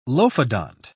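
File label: "lophodont", British English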